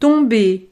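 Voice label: "tomber", French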